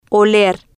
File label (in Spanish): "oler" Spanish